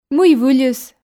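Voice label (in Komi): "мый выльыс", Komi, female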